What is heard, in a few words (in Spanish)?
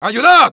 Sniper help me, es